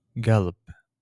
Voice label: Pronunciation for Heart in Gulf